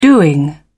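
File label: "doing", British English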